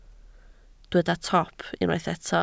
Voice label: "top", Welsh